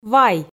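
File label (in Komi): "вай", Komi, female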